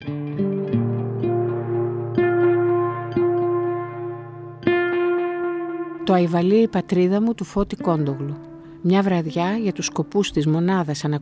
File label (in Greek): spot; galilaia; aivali